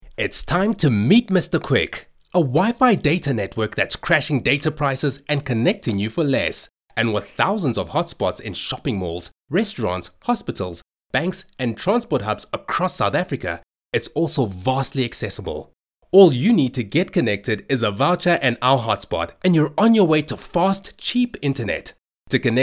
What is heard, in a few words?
English Conversational; OSM Talent